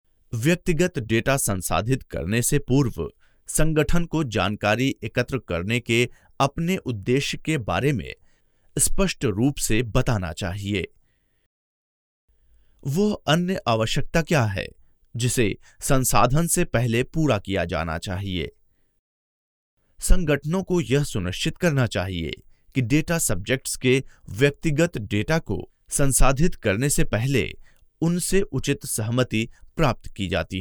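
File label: Hindi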